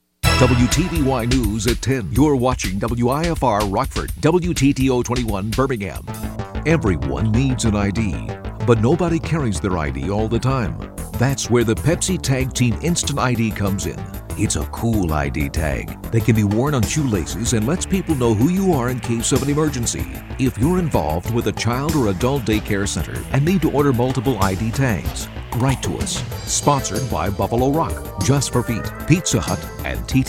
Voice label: broadcast elements